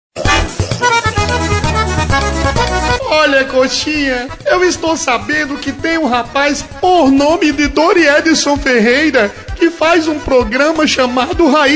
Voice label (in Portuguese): spot imitacao; cochinha